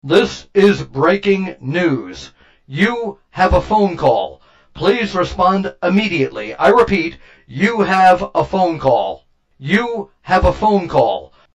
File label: robot voice; ringtone